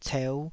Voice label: "ceu5", taiwanese Syllables

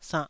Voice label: "sannh2", taiwanese syllable

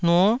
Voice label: "nuo9", Taiwanese

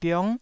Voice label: "biong9", taiwanese

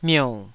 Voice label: "miou3", taiwanese syllables

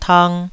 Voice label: "tang2", Taiwanese

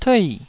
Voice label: "tui4", taiwanese Syllables

